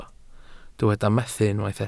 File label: "methu", Welsh